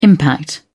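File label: "impact", British English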